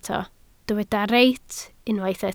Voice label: "reit", Welsh

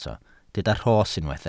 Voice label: "rhos", welsh

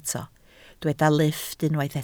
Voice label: "lifft", Welsh

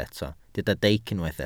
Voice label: "deic", welsh

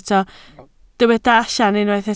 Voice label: "allan", Welsh

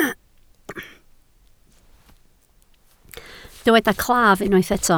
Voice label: "claf", Welsh

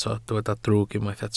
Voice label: "drwg", Welsh

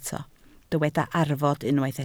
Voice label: "arfod", Welsh

